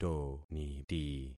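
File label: "toniti", concatenated syllables; Affixing experiment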